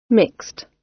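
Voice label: "mixed", British; Study, education, research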